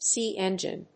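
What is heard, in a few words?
"SI engine", English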